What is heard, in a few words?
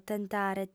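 "tentare", Sardinian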